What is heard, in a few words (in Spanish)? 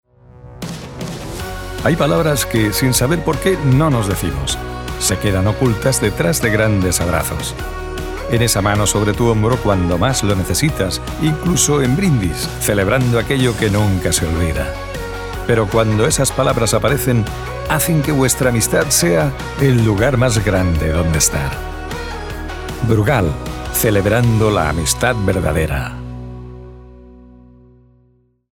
Español Publicidad